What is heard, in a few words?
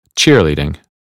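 "cheerleading", English word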